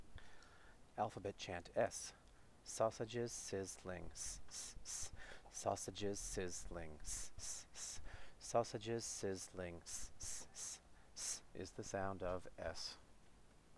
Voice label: alphabet chant 's' fast